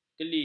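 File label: "keli", Malay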